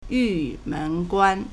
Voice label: "yu4men2guan1", Chinese

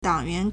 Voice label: "dang3 yuan2", Chinese

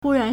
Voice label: "hu1 ran2", Chinese

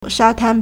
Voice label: "sha1tan1", Chinese

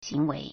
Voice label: "xing2wei2", Chinese

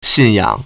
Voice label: "xin4yang3", Chinese

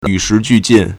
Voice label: "yu3shi2ju1jin4", Chinese

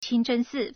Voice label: "qing1 zhen1 si4", Chinese

